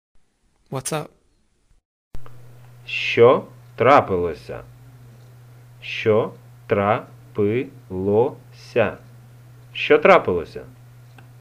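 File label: Whats up, Ukrainian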